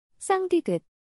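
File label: "ㄸ", Korean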